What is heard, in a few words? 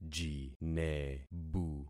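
"jinebu", concatenated syllables; Affixing experiment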